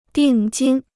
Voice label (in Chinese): "定金", Chinese